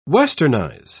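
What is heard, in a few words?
"westernise", British English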